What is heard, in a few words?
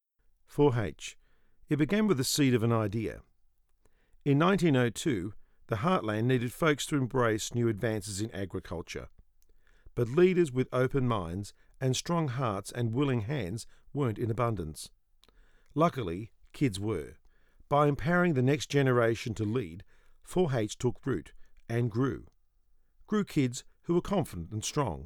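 English Australian M Narration; accent of australia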